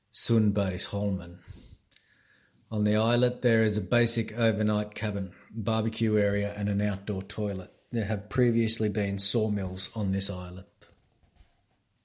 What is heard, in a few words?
English voice; Sundbergsholmen